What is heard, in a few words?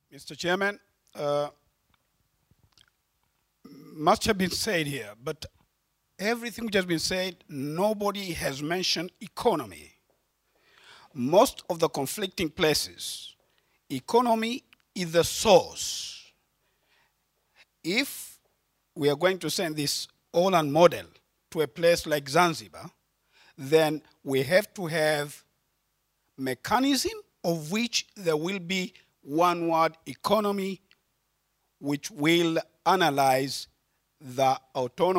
panel discussion audience; panel iii discussion